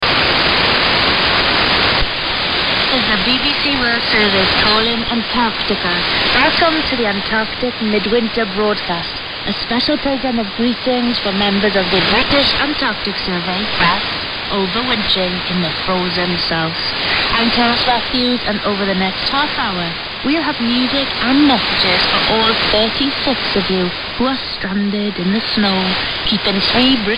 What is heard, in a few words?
9455 kHz, Sync AM; WOF